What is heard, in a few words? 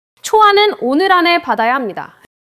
neutral, monologue